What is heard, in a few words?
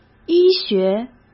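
"医学", Mandarin Chinese; hsk; chinese-flashcards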